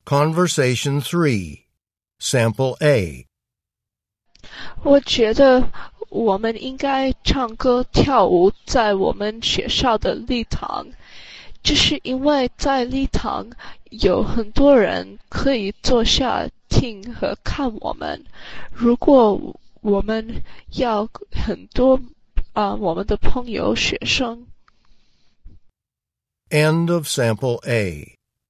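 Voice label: chinese conversation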